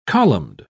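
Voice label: "columned", British English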